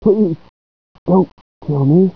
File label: please low